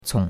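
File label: "cong3", Chinese; Cours-de-Chinois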